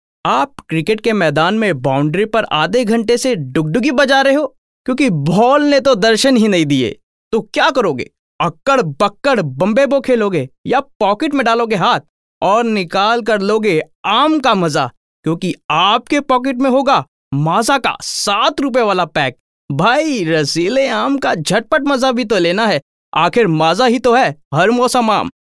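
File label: character hindi, male voice; Maza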